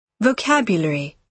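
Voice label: "vocabulary", British English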